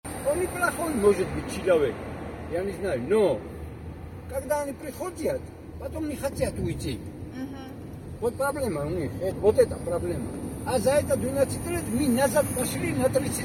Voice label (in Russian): грузин таксист; об Иванишвили